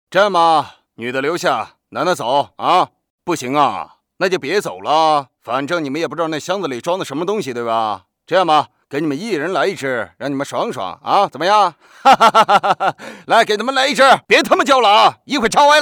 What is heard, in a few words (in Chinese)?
男 影视角色